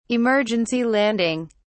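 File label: "emergency landing", British English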